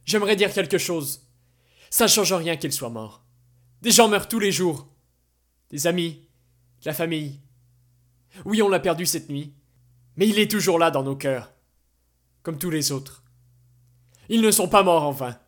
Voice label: Voix Doublage Film

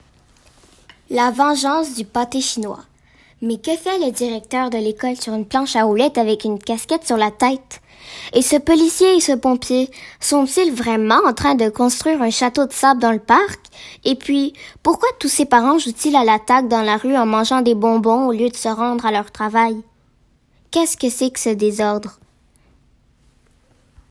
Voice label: Voix FR

